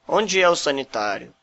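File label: toilet, Brazilian Portuguese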